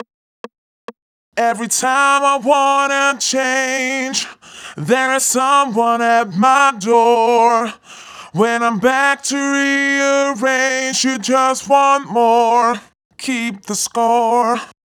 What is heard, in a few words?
Verse A PITCHDOWN FX, 136 BPM; Vengeance Samples; Breakaway